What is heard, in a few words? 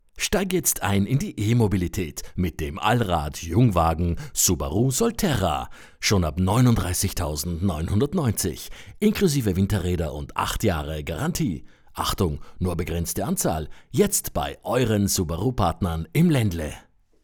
Commercial; Subaru